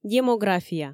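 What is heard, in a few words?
"демография", Komi, female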